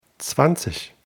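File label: "zwanzig", German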